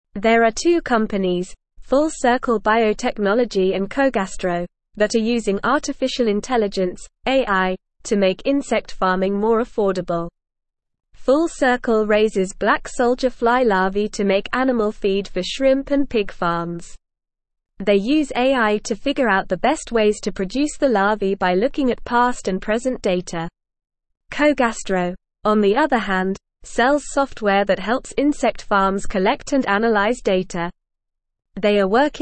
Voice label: English NORMAL Reading; Insect Farms Turn to AI to Lower Costs

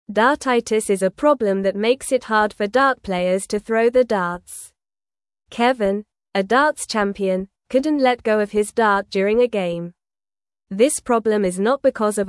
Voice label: English Newsroom Beginner NORMAL Reading; Kevins Dart Problem and How He is Getting Help

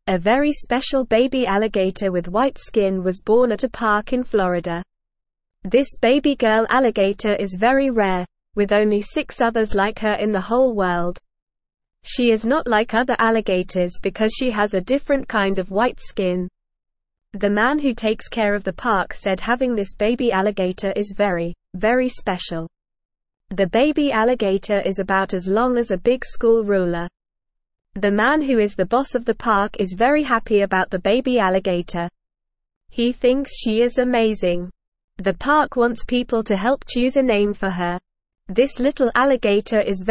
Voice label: English Beginner NORMAL Reading; Rare White Baby Alligator Brings Joy to Park; English Newsroom

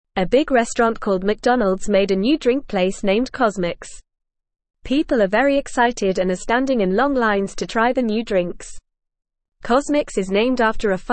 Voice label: English Lower Intermediate FAST Reading; English Newsroom

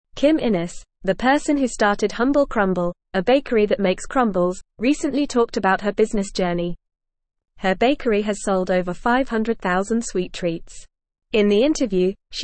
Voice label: English Upper Intermediate FAST Reading; Pumpkins and Persistence A Bakery Founders Business; English Newsroom

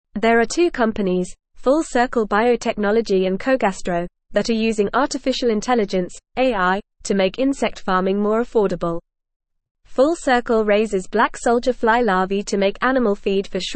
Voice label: English FAST Reading; Insect Farms Turn to AI to Lower Costs

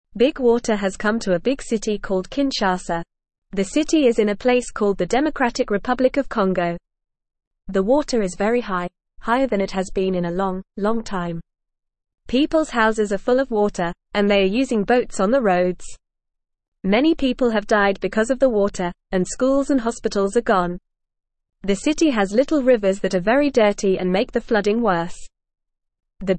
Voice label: Beginner FAST Reading, English; English Newsroom; Big Water Floods Kinshasa Houses Schools and Hospitals Gone

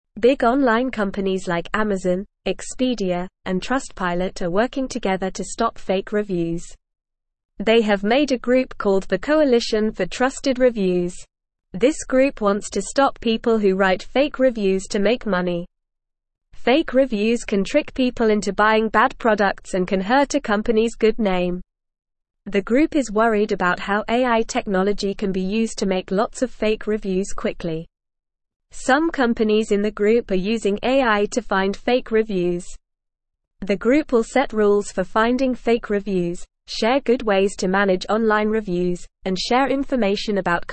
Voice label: English Lower Intermediate NORMAL Reading; Big Companies Join Forces to Stop Fake Reviews; English Newsroom

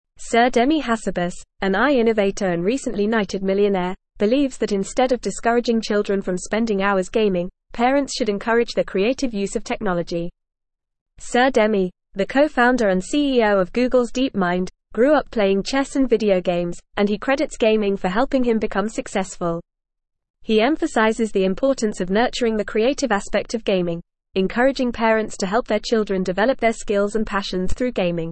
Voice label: English Newsroom Advanced FAST Reading; Video Games Boost Creativity in Young People Says Google CEO

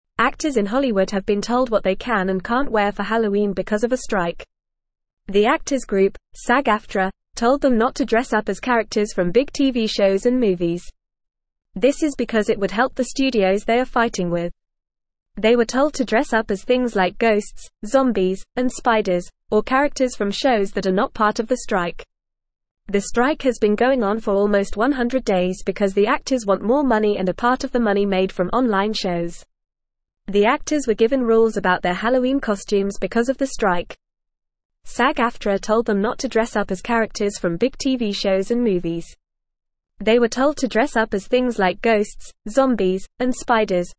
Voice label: English Newsroom Lower Intermediate FAST Reading; Hollywood Actors on Strike No Movie Costumes Allowe

